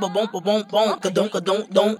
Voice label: babump babump bump gadunk gadunk dunk, gibbrish; Voice